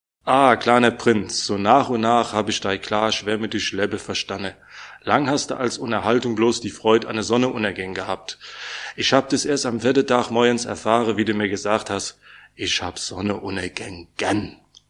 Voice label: Deutsch Wetzlar

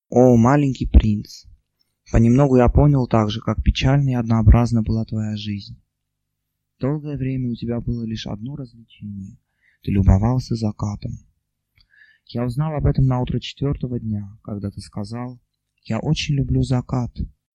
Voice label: Russisch Jerewan

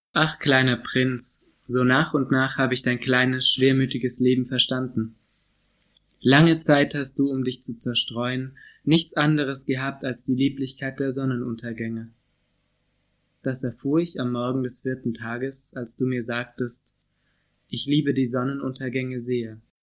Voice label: Deutsch, Esslingen

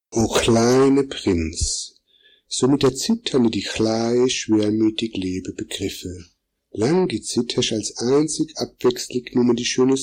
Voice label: Deutsch Deutschland

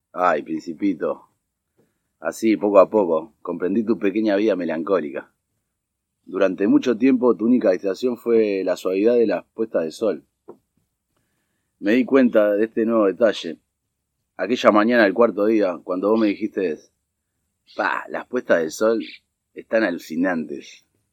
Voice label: Spanisch Montevideo

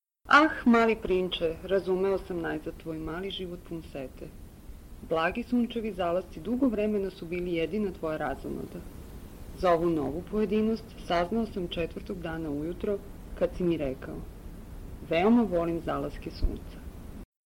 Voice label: Serbisch Novi Sad

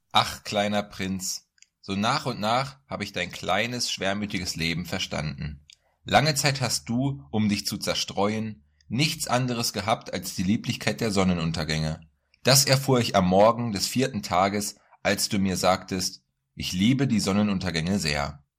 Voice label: Deutsch, Hannover

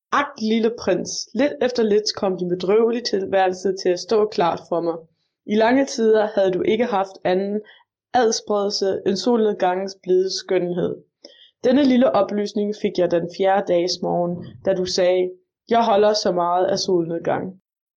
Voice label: Daenisch Aabenraa

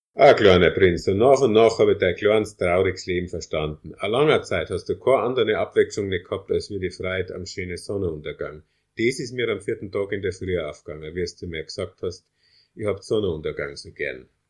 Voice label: Deutsch Regensburg

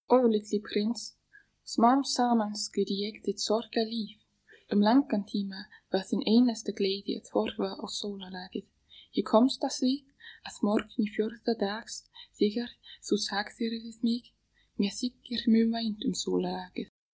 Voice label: Islaendisch, Solheimar

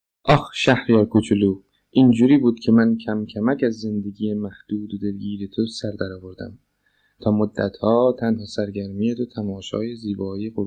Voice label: Persisch Khorramshar

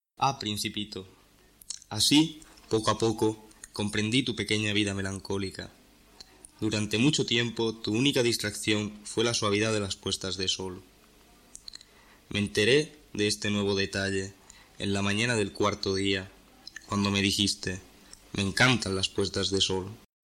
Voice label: Spanisch Melilla

